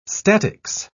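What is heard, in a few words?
"statics", British English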